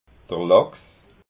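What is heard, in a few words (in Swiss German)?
"lachs", Alsatian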